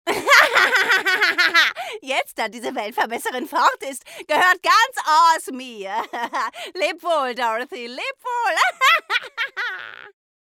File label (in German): character hexe